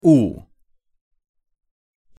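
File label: "у", Russian pronunciation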